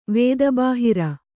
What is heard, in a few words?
"ವೇದಬಾಹಿರ", Kannada